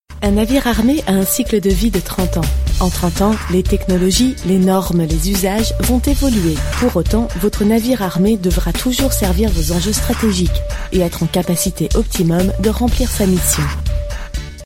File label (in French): Film Institutionnel; DCNS